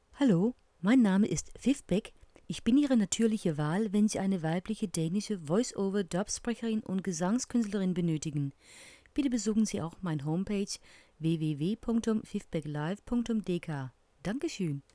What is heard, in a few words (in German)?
Deutsch Präsentation